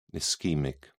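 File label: "ischaemic", British English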